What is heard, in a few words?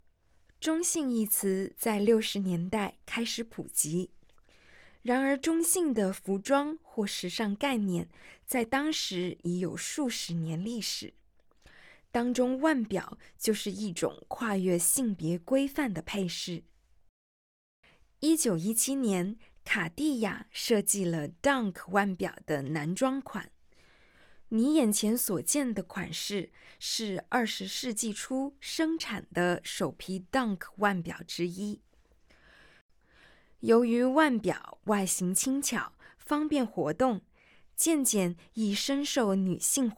Madarin, audio guide